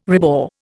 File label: "rebore", Indian